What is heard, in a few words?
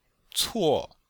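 "cuo4", Chinese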